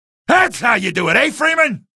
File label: kill, male; video games; npc; source engine; episodic; episode 1